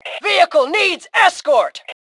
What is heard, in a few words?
Need Escort, Female; T2 Female 04